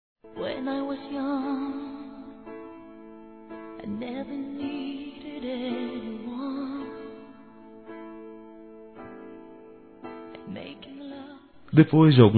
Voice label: Aniversário Namoro Masculino; Aniversários; Aniversários diversos

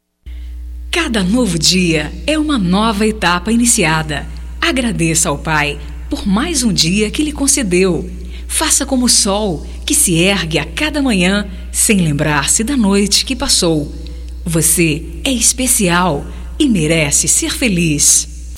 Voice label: OTIMISMO GERAL, fem, Voz; Telemensagens 2017

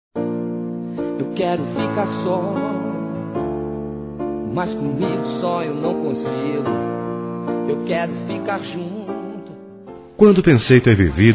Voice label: Variados Româtica Masculino, Telemensagens

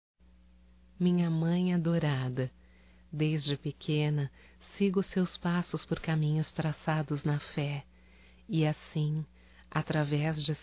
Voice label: EVAN MãE EVANG (F); Telemensagens 2017

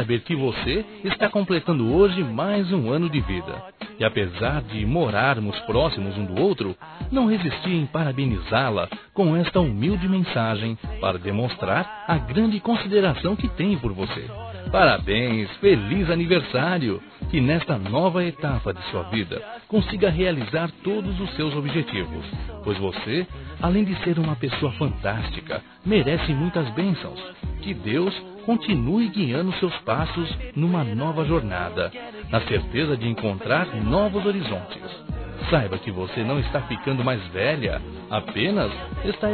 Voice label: VIZINHA M

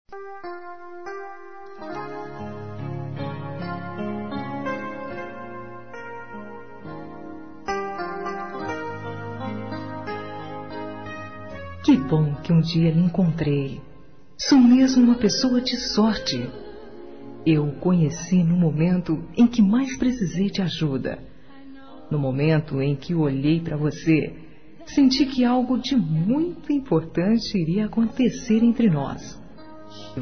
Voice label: ANIVERSARIO DE NAMORO F; Aniversários; ANIVERSARIO romantico; fem

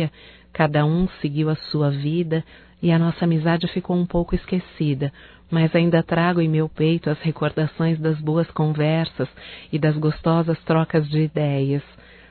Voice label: SAUDADES AMIGO, Telemensagens, Só Voz, fem

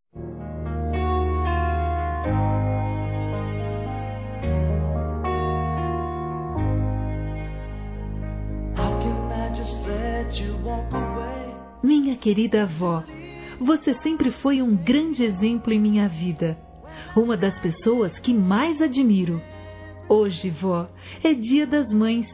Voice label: AVÓ F, Telemensagens; Telemensagens 2017

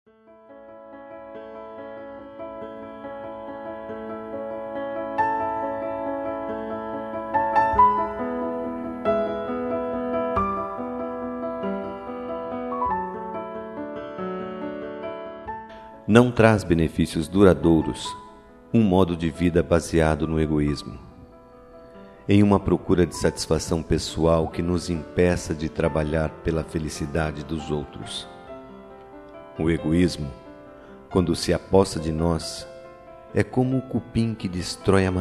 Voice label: FRATERNIDADE, Masc; OTIMISMO

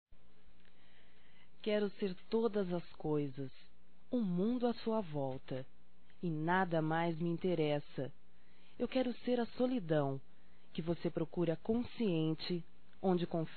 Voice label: Romantica Poesia Feminino; Românticas

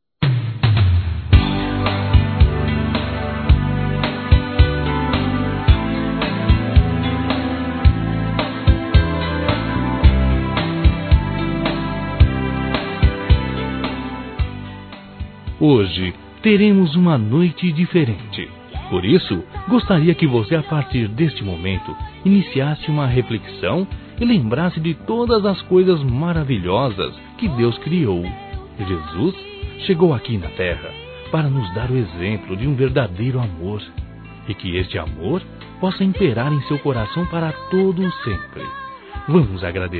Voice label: Natal Irmão Masculino; Telemensagens; Natal e Ano novo

